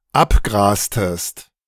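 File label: "abgrastest", German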